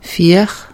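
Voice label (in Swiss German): "viar", Alsatian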